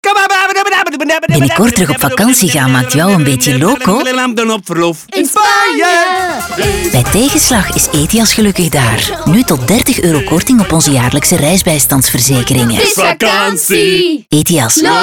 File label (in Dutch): NL Travel VO; Ethias; Skibidou